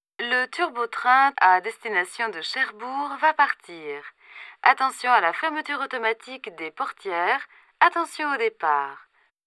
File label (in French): voix hp; audio ease speakerphone